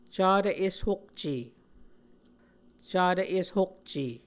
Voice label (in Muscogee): "catv eshokce", Muscogee